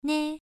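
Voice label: "nei", Mandarin Chinese syllable, first tone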